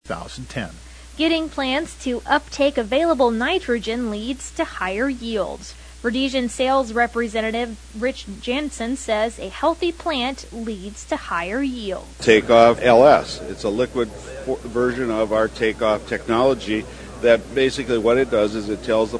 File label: air check; Verdsian